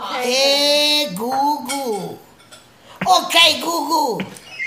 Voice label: Hey Goo Goo Italian Ringtone